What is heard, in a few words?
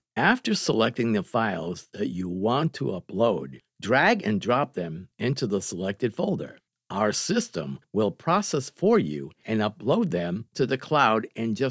EDUCATIONAL HOW TO